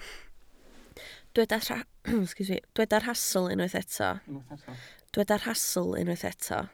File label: "rhastl", Welsh